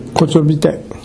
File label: "kotyobitae", minamiyamagata